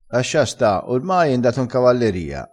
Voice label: "in cavalleria", crespinese

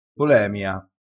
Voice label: "polemia", vocabolario dialettale

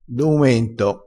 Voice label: "doumento", crespinese

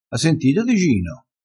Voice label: "osa", crespinese

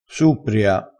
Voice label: "suppria", vocabolario dialettale crespinese

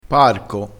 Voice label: "parco", crespinese

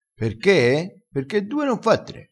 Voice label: "perche", vocabolario dialettale

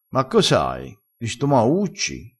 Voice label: "stomaucci", crespinese

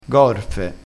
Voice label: "gorfe", crespinese

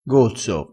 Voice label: "gozzo", crespinese dialettale

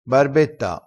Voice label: "barbetta", dialettale

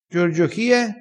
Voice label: "chie", crespinese

